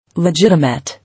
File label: "Legitimate", English